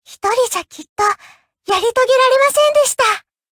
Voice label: levelup ja; Cookie Run